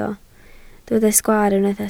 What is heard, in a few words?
"sgwaar", Welsh